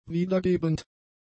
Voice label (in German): "wiedergebend", German; adjectives